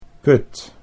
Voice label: "put", Dutch